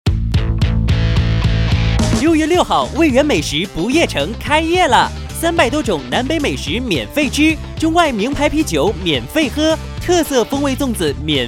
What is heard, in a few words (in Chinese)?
促销广告美食城